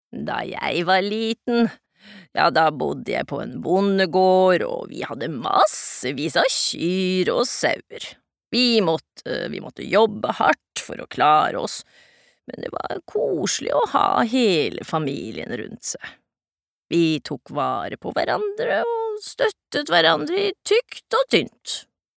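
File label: no dub old woman, Norwegian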